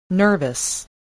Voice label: "nervous", voice